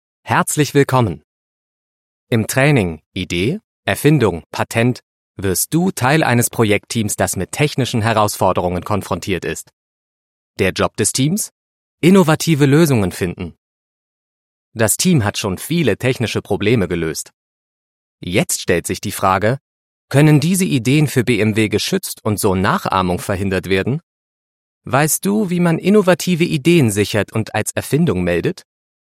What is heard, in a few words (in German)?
E-Learning; BMW Patente; LOFT VOICES